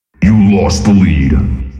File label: lead lost, robotic announcer